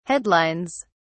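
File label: "headlines", British English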